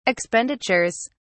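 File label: "expenditures", English, British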